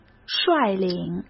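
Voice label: "率领", Mandarin Chinese; chinese-flashcards; hsk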